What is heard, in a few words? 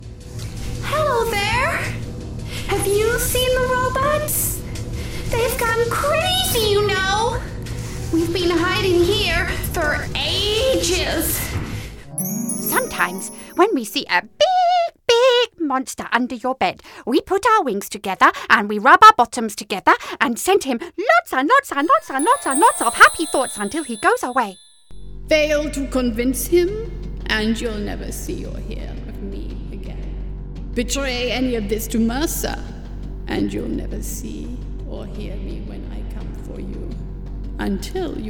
Animation